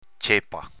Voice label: "cepa", dialetto veneto

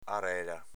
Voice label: "arela", dialetto veneto

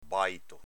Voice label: "baito", dialetto veneto

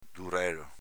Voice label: "durelo", dialetto veneto; s.m. ventriglio; di pollame

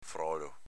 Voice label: "frolo", dialetto veneto; agg. tenero, friabile

